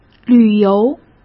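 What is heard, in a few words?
"旅游", Mandarin Chinese; hsk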